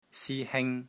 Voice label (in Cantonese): "SI1 HING1", Wing Chun; Wing Chun Elements Glossary